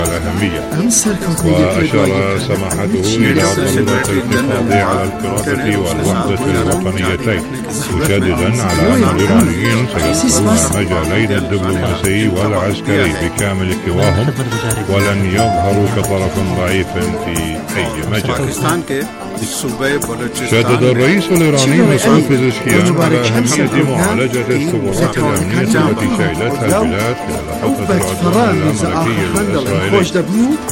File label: English